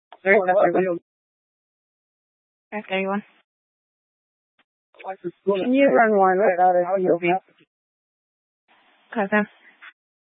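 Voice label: Police Dispatch, scanner audio recording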